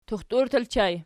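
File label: "tuxtur" ergative plural, Archi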